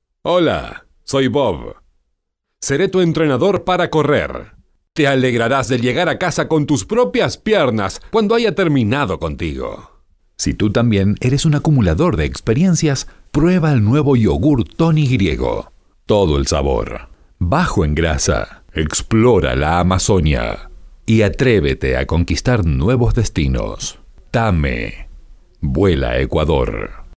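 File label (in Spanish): generico Argentina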